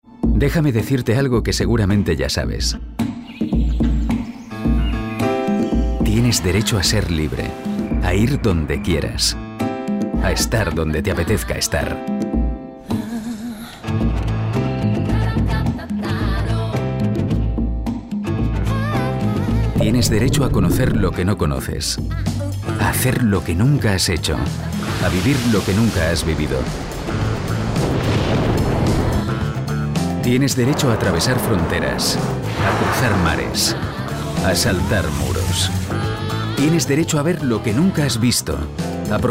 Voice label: male spanish travel brand comercial; ideasonora